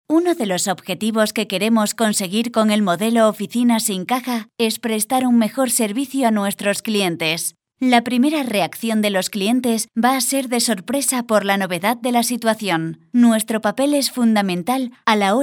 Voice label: spanish female

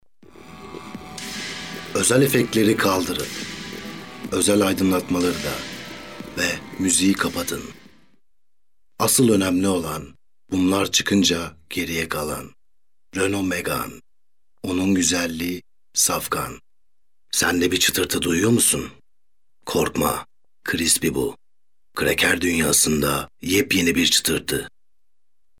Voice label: turkish male

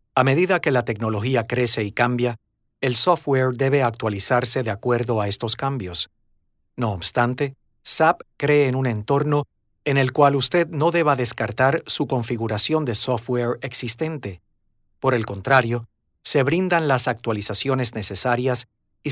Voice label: mexican male

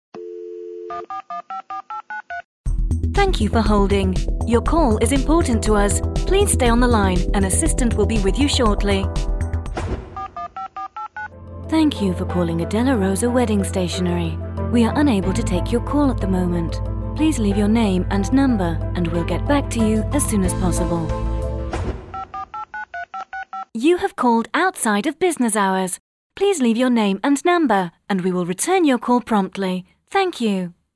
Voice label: eng british female; dictor

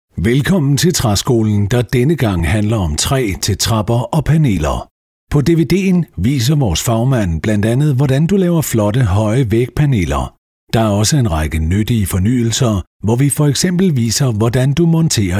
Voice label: danish male; dictor

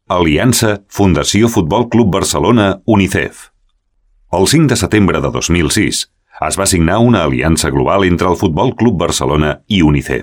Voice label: spanish cat male

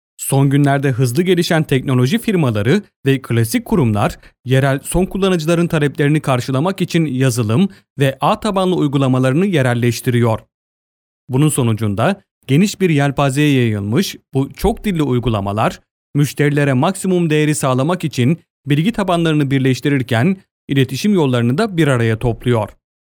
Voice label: turkish male

